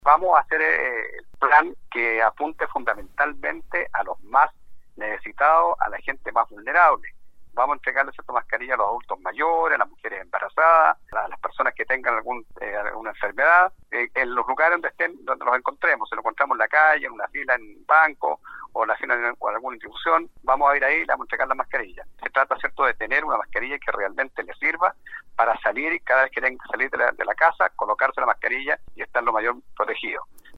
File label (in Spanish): CUÑA; MASCARILLAS